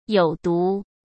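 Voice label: "有毒", Mandarin Chinese; hsk; chinese-flashcards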